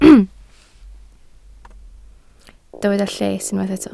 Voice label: "lles", Welsh